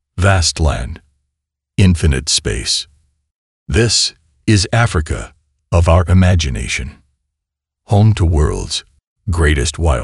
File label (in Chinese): 外籍男英 外语 美式英语 样音 低沉